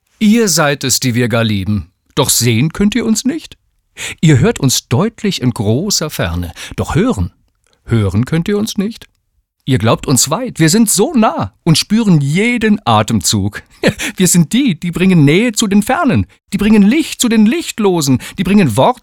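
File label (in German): froehlich, Sprecher, Männer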